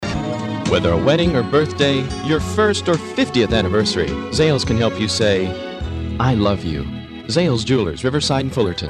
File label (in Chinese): 珠宝广告